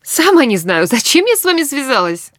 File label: idle, woman